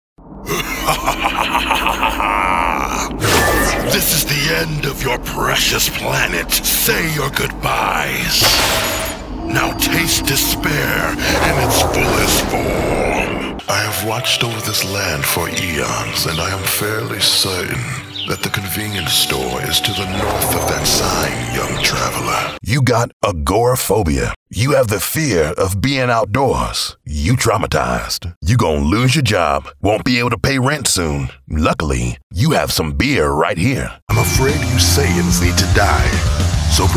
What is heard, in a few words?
men animation; Masculine, ominous, warm, rich, deep, sexy, and smooth.